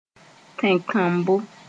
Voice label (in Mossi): "tẽnkãnbo", Moore